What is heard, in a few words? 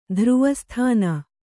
"ಧ್ರುವಸ್ಥಾನ", Kannada